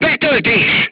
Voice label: voice message reloading, crew tanks hungarian

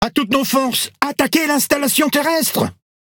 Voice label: Speech Quick Commands Enemy Building, FR; World Of Warships

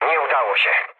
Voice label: bomb failure mood low, speech pol; War Thunder

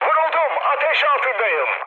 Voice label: on fire, mood med, speech tur; War Thunder

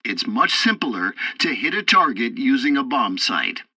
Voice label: tutorial bomber sight, english; War Thunder

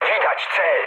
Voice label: near waypoint mood high, speech pol; War Thunder

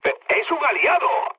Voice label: player friendly fire, mood med, speech; War Thunder

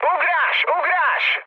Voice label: bailout, speech hun; War Thunder

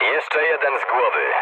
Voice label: player killed enemy mood low, speech pol

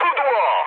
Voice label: bomb failure mood med, speech pol; War Thunder

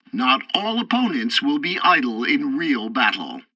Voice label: tutorial tank arcade target camera, english; War Thunder

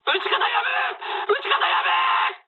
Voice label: player friendly fire mood med, speech jp; War Thunder

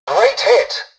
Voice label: tutorial dialogue, english; War Thunder

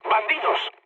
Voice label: enemy detected, mood med, speech; War Thunder

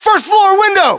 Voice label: location first floor window, battlechatter us

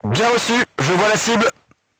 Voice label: voice message target lock, crew tanks french

